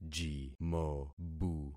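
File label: "jimobu", concatenated syllables; Affixing experiment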